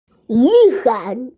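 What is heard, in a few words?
"umbrella", Mandarin; yu3san3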